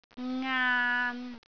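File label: "ngaan3", Cantonese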